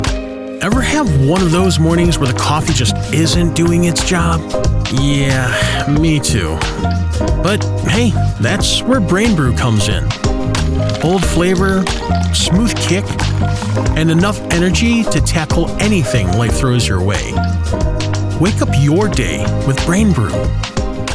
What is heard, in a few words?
Guy Next Door